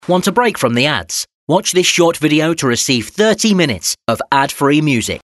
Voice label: Commercial; spotify